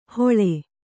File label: "ಹೊಳೆ", Kannada